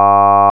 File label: vowel ah 100Hz; speech recognition course